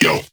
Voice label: Vocal Adlib Male "Yo" Short; bass house